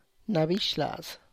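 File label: Tlacochahuaya Zapotec; disgusting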